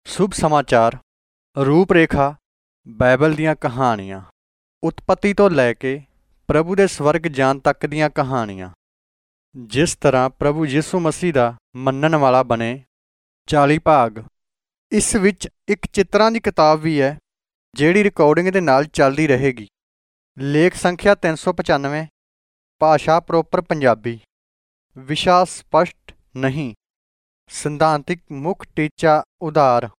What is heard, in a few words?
punjabi; good news; pan punjabi eastern punjabi proper audio bible